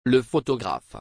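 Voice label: "Le photographe", French; Le photographe (m.); عکاس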